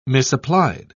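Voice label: "misapplied", British English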